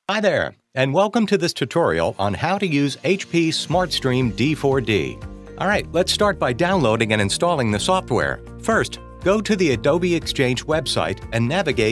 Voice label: eLearning